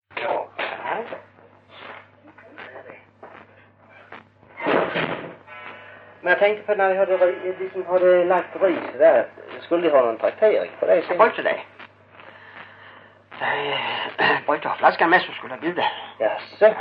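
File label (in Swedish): Hörja Skåne, dialekter; Folkminnen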